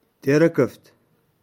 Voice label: "Terakafet", Touareg; Caravane